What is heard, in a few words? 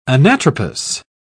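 "anatropous", English, British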